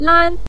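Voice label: "lan" neutral tone, Mandarin Chinese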